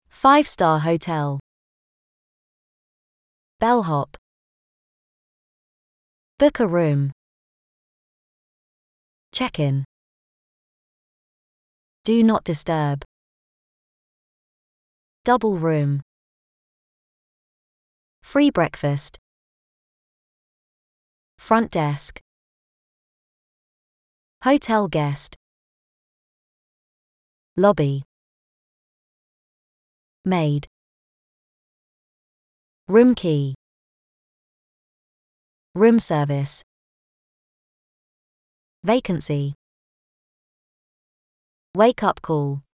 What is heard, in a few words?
uk hotels